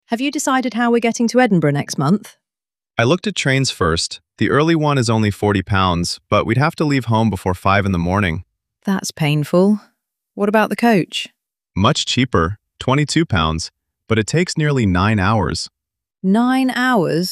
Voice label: dialog, listening travel holidays